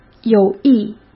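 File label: "有益", Mandarin Chinese; hsk; chinese-flashcards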